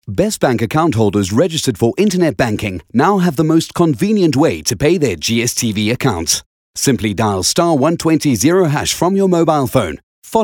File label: English Skill Promo